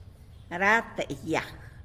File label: "rata yag", tlacolula; all the plants